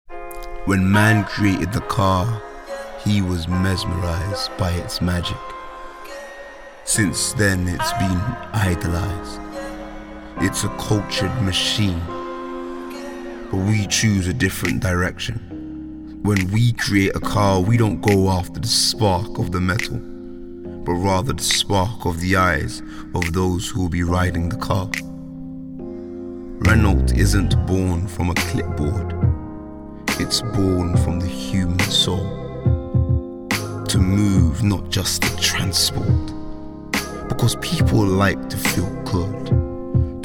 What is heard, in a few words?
male english commercial; renault